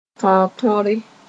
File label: "tõr-tõre", Mooré